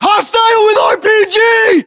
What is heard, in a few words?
threat rpg, US